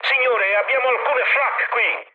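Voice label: Italian damaged aa mood med, speech; War Thunder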